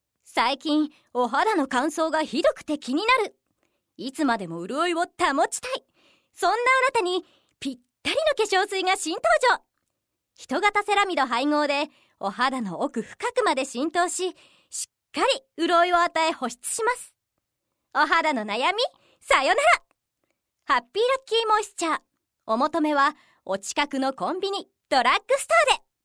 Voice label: narration